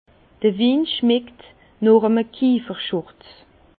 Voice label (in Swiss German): kieferschurz, Bas Rhin; vin; ce vin sent le tablier du tonnelier le vin vient dêtre soufré